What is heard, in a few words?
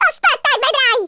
"REVENGE", Italian; Worms Armageddon